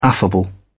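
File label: "affable", English, uk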